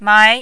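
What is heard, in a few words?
"mai", Mandarin, second tone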